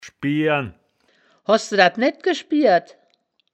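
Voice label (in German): "schbieren", wittlicher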